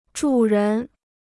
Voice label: "主人", Chinese

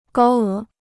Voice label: "高额", Chinese

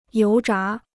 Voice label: "油炸", Chinese

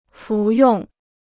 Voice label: "服用", Chinese

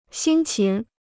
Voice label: "辛勤", Chinese

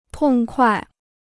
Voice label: "痛快", Chinese

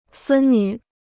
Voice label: "孙女", Chinese

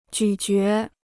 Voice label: "咀嚼", Chinese

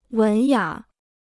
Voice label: "文雅", Chinese

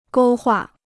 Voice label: "勾画", Chinese

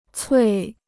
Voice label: "脆", Chinese

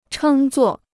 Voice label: "称作", Chinese

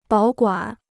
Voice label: "保管", Chinese; bǎo guǎn; to guarantee

